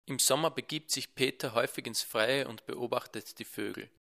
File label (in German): mikro pop nahe direkt mit filter